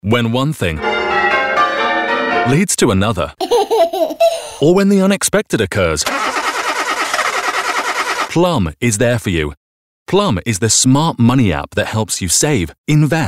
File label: english international promos; locutor profesional de habla inglesa